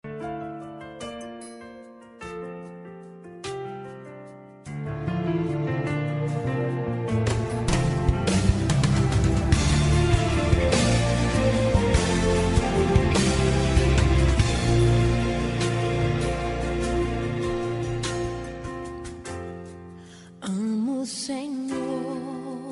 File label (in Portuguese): aniversário amiga gospel, Aniversário de Amiga Gospel – Voz Feminina; Telemensagens para todas as ocasiões